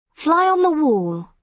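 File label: "fly on the wall", British English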